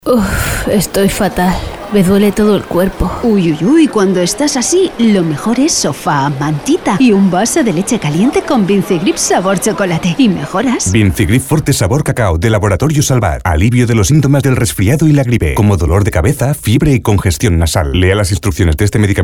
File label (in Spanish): Cuña de Radio emisión Nacional sabor cacao; Vincigrip